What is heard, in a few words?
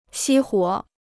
"熄火", Chinese